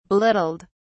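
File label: "belittled", British English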